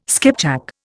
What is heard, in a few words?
"skipjack", Indian